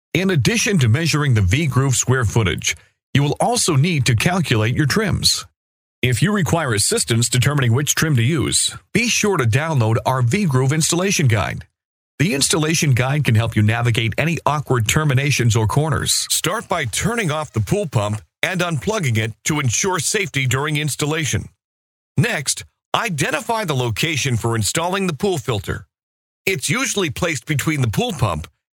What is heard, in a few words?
Explainer